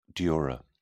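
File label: "dura", British English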